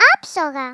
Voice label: "Perfect", Greek; Worms Armageddon